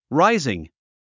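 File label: "rising", English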